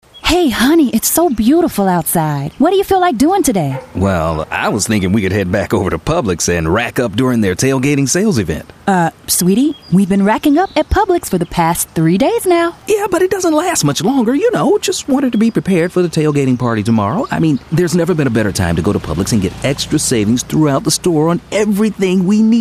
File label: Fall Voiceover; Publix